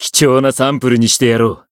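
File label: Voice Japanese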